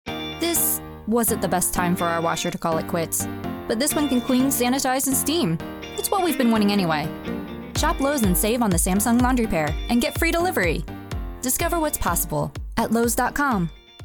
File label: Natural Conversational